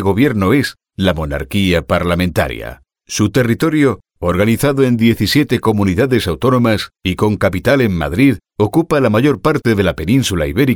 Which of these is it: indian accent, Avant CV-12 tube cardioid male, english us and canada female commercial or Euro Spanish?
Euro Spanish